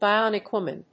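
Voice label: "bionic woman", English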